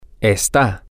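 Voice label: you are formal, Spanish, male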